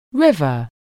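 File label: "river", English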